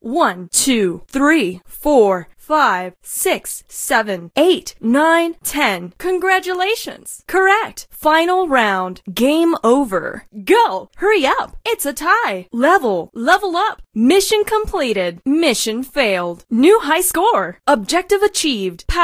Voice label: Voiceover Female; Kenney's Sound Pack